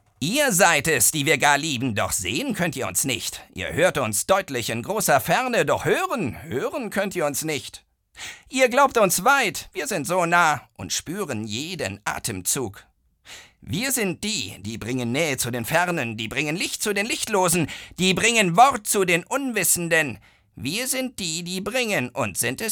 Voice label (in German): charge, maenner